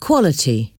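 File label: "quality", English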